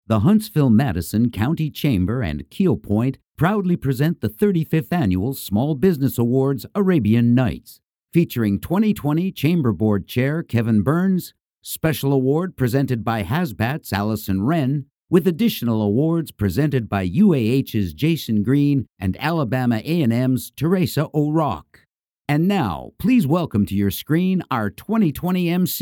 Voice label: Live Announcer